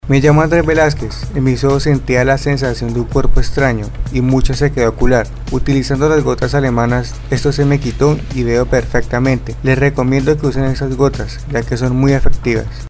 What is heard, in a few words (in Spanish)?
TESTIMONIO; GOTAS